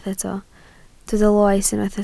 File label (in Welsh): "loes", Welsh